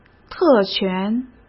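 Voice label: "特权", Mandarin Chinese; hsk; chinese-flashcards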